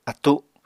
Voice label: "attu", Apatani